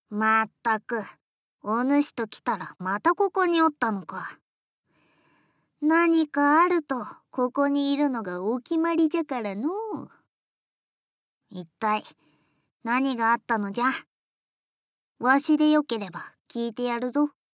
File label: Young girl